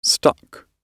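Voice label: "stuck", British English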